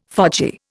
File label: "fogy", Indian